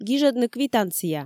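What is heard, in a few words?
"гижӧдны квитанция", Komi, female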